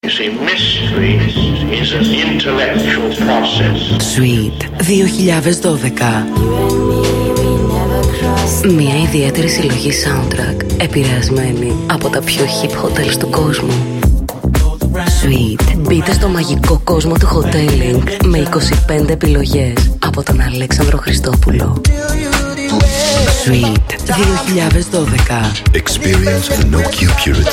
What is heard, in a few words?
Radio Spot